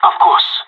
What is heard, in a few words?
agree, pirate; Counter Strike Model Pack; CS:GO